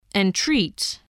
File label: "entreat", voice, english